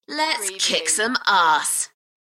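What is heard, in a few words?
British female Lets kick some arse; beanstalk audio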